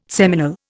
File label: "seminal", Indian